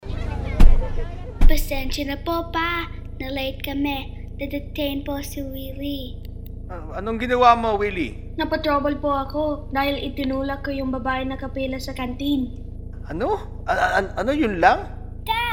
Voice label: Radio Tagalog; CALD

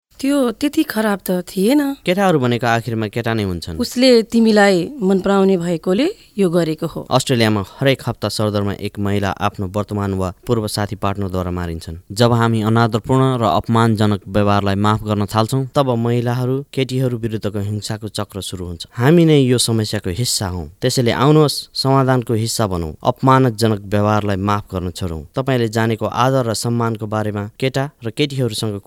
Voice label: nepali

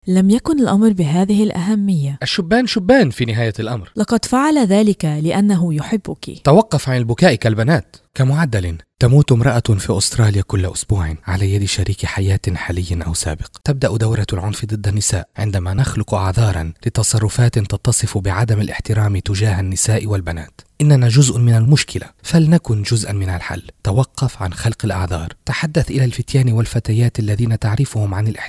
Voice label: arabic

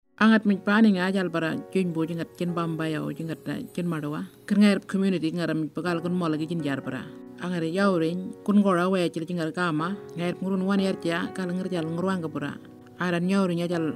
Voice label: Burarra radio

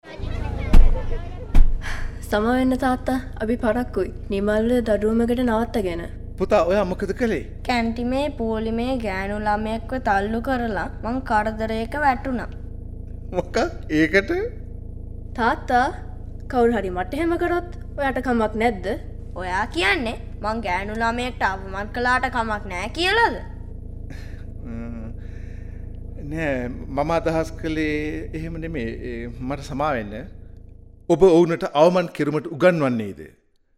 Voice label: Radio Sinhalese; CALD

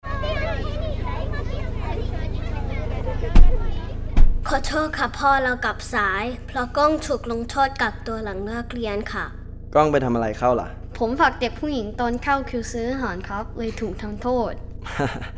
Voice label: Radio Thai; CALD

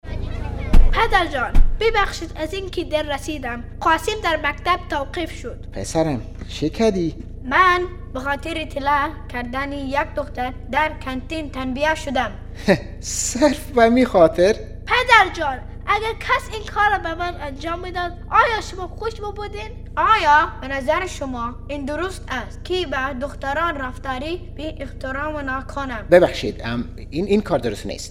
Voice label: Radio Dari; CALD

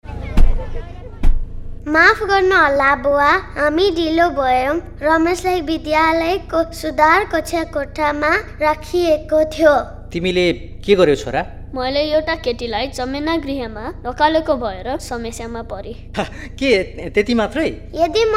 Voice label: Radio Nepali; CALD

